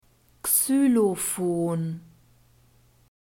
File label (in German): "xylofon", German; alphabet